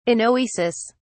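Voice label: "anoesis", British English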